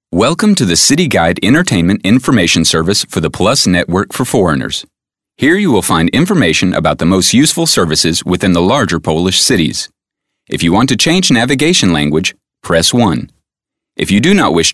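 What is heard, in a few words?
American English, male, explainer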